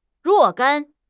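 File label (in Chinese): "若干", Chinese; HSK6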